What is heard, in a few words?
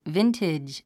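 "vintage", English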